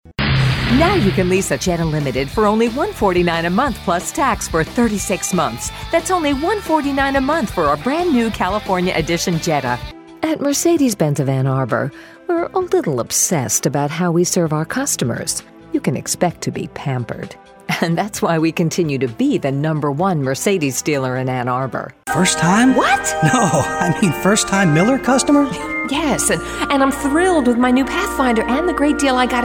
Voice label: english american automotive

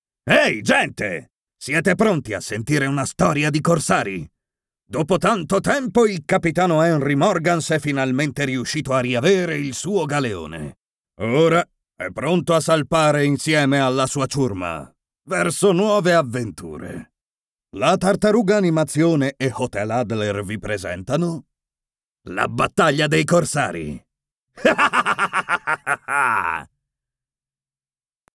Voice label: italian animation

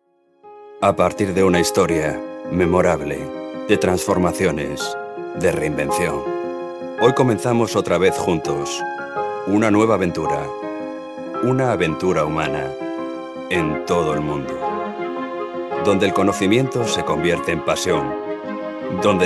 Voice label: spanish corporate videos

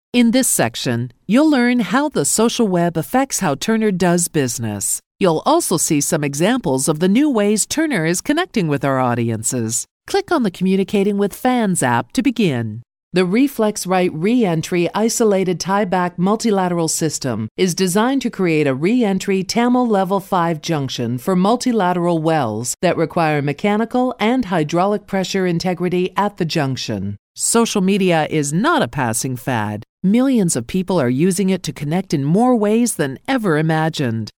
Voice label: english canadian e-learning

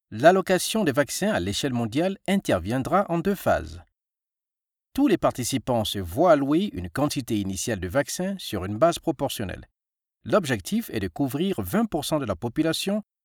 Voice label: french e-learning

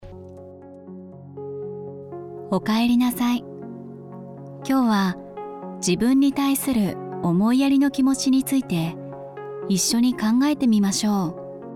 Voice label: japanese narration

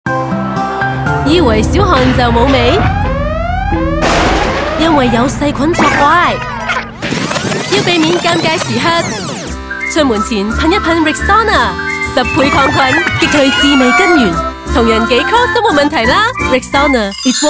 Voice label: chinese cantonese promos

